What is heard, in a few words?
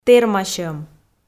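"тэрмасьӧм", Komi, female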